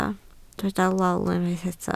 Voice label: "lol", Welsh